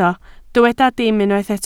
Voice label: "dim", Welsh